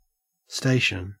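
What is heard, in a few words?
"station", Australian English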